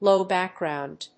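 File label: "low background", English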